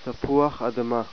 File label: hebrew